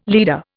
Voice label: "leader", Indian

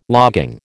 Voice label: "logging", American

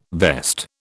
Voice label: "vest", British

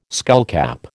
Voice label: "skullcap", American

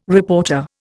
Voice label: "reporter", Indian

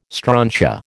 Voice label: "strontia", American

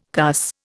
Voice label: "cuss", Indian

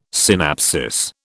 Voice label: "synapsis", British

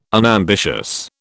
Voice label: unambitious, British

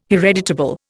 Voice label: "hereditable", Indian

